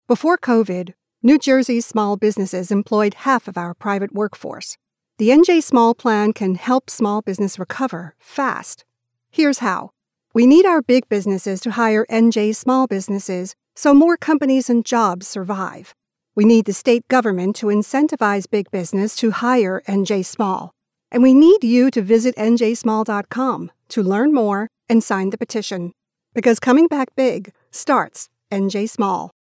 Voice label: tv spot